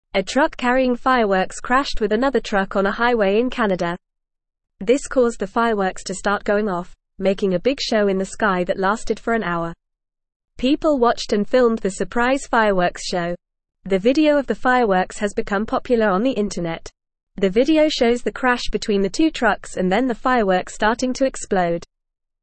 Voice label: English Lower Intermediate FAST Reading; Cars Crash and Fireworks Make Pretty Show